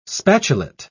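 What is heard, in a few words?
"spatulate", British English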